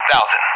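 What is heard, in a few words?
"1000s", English; Numbers; DCS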